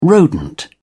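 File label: "rodent", British English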